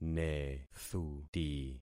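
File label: "nethuti", concatenated syllables; Affixing experiment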